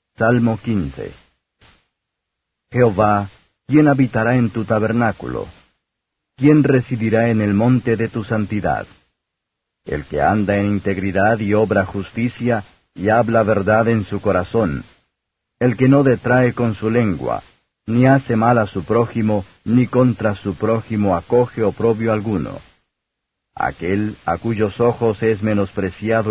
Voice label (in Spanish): salmos spanish